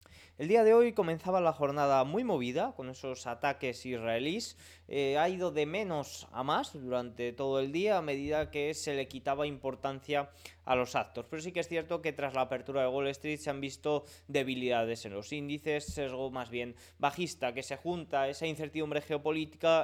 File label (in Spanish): radio; noticias bolsa; movimientos controlados a la espera del qra